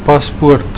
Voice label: "paspoort", Afrikaans

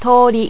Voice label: "toori", japanese

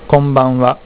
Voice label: "Good evening", japanese

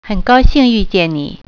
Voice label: "meet you", Mandarin

